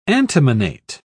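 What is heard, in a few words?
"antimonate", British English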